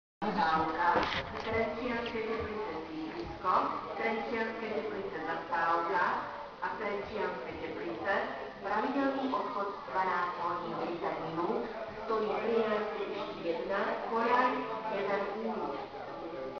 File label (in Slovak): Hlasenie stanicneho rozhlasu